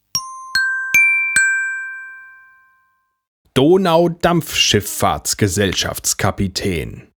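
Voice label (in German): "Donaudampfschifffahrtsgesellschaftskapitaen", German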